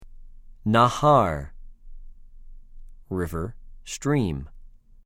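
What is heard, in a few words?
"נָהָר", Hebrew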